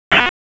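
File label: FIRE Operations, p25; FIRE OPS 3; wake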